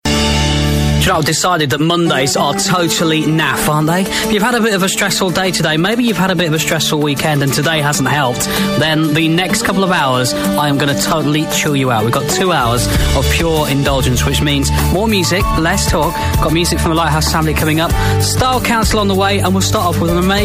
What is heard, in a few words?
aircheck; touch radio